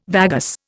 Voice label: "vagus", Indian